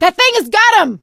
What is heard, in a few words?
hunter pounced, survivor voice